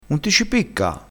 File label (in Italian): "piccassi", crespinese